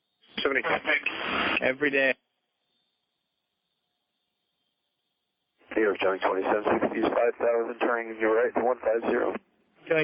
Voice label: chatter